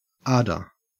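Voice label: "ardor", Australian English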